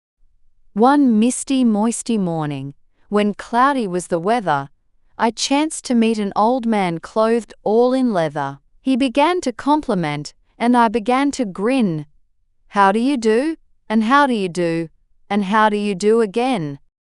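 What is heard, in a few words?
female Australian; One Misty Moisty Morning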